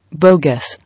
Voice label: "Bogus", English